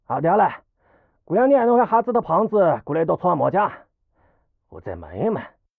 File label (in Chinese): 偷听对话 癞子